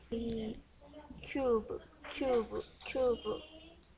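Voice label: "cube", spoken word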